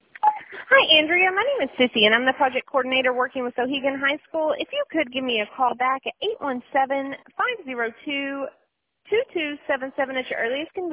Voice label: voicemail